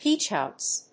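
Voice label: "Peach fuzz", English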